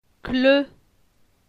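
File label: "Cle", French; phonetique; la syllabe e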